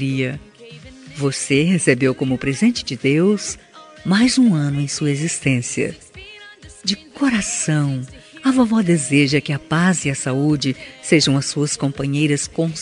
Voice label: Aniversário de Neta – Voz Feminina; Telemensagens para todas as ocasiões

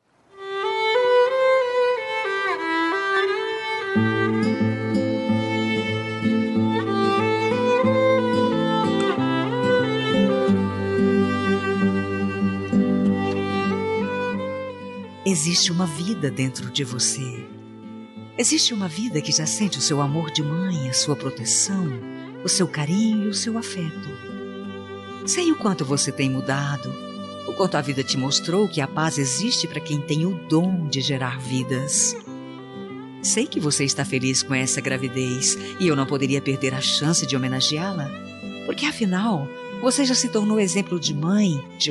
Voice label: fem, Voz Feminina; dm furura mae fem; dia das maes para futura mamae voz feminina

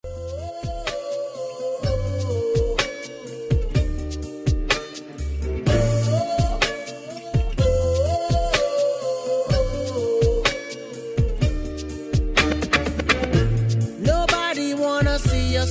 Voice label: amizade fem, Telemensagem Amizade – Voz Feminina

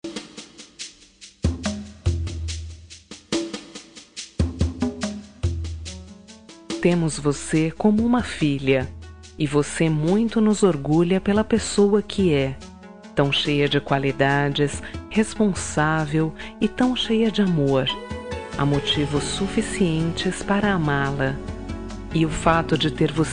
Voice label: nora fem; Telemensagens para todas as ocasiões; Aniversário de Nora – Voz Feminina

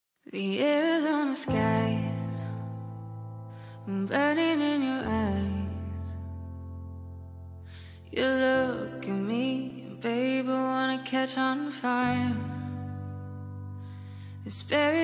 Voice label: romatica masc, Telemensagem Romântica, Voz Masculina

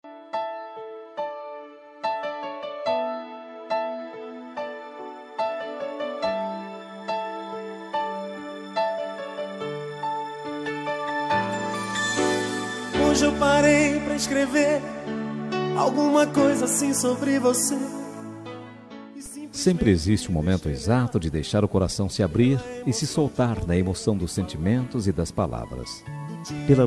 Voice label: Voz Masculina; Homenagem para Filho – Voz Masculina

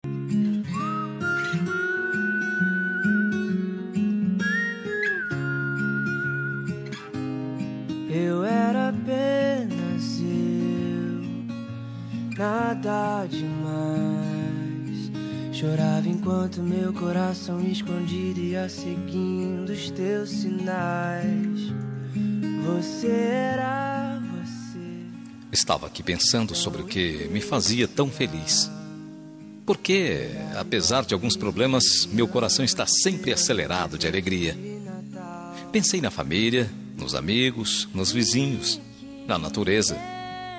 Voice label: romântica, Telemensagem Romântica – Voz Masculina

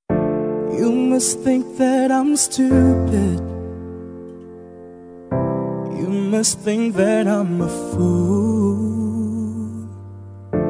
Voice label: dia dos namorados distante, voz masculina; dia dos namorados para namorada distante

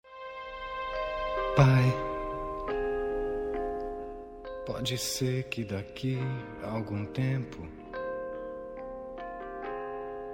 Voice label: masc, Dia dos Pais – Voz Masculina; lindaaa

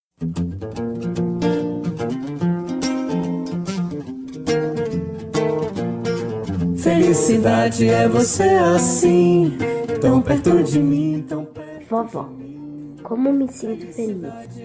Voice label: avo infantil fem, Voz Feminina Infantil; dia das maes para avo voz feminina infantil

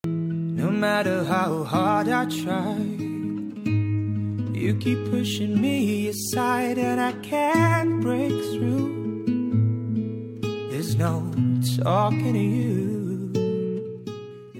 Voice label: dia dos namorados ex masculina; dia dos namorados para ex namorada voz masculina

